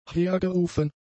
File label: "hergerufen", German; adjectives